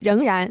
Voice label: "仍然", china